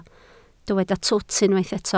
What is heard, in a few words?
"twt", Welsh